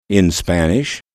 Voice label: "in Spanish", English